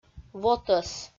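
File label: "вотӧс", Komi, female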